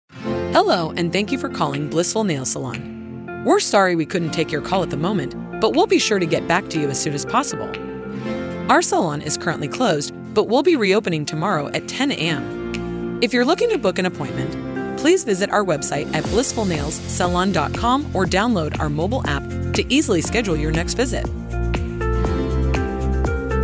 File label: ivr